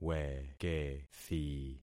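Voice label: "wekethi", concatenated syllables; Affixing experiment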